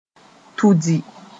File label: "tudi", Moore